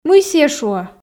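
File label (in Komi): мый сійӧ шуӧ, Komi, female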